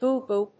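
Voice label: "boohoo", English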